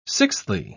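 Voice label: "sixthly", British English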